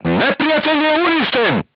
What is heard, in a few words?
voice message target destroyed, crew tanks serbian